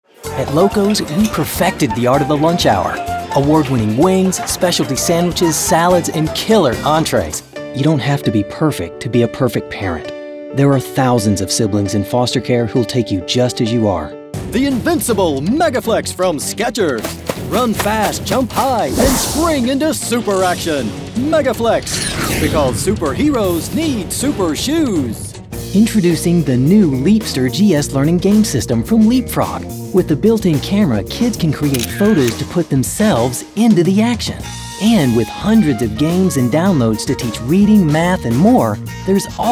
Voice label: commercial